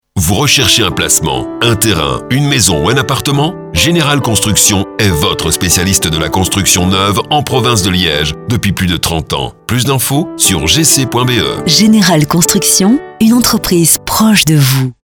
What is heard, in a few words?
pub radio